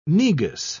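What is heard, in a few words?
"negus", British English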